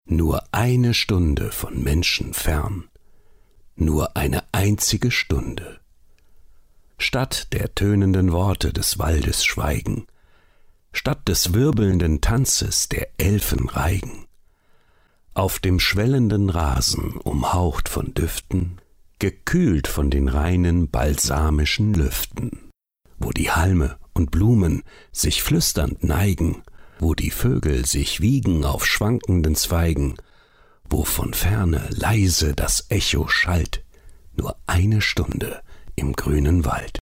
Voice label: male german comercial; ideasonora

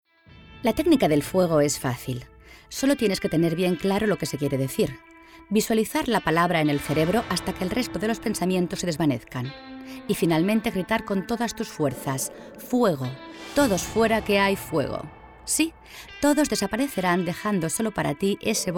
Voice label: female spanish comercial; ideasonora

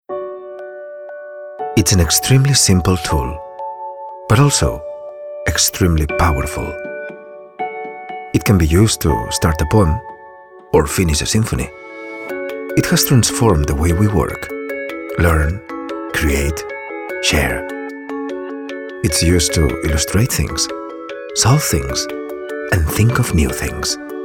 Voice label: male non native english tech comercial; ideasonora

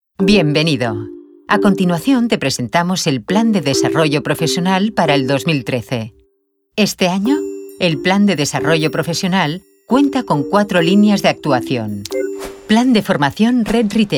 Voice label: female spanish bank comercial; ideasonora

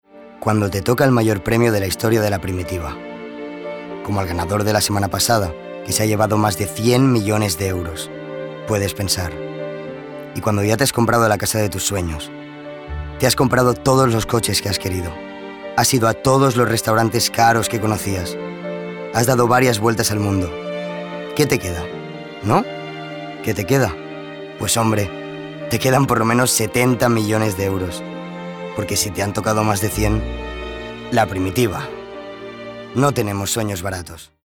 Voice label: male spanish lotery comercial; ideasonora

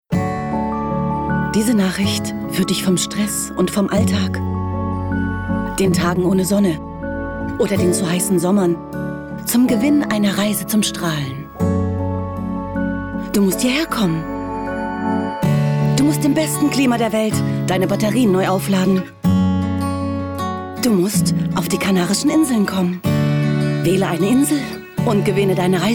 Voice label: female german tourism comercial; ideasonora

